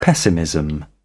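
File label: "pessimism", British English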